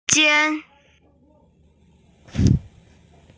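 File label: "shi2jian1", Mandarin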